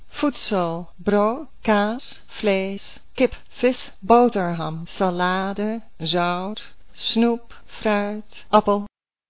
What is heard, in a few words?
dutch; food